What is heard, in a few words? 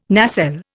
"nacelle", Indian